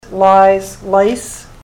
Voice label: lies lice, ontario